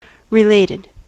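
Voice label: "related", US English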